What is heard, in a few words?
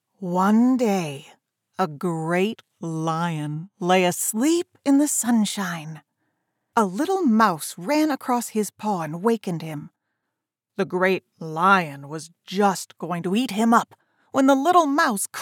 Fiction Childrens Classic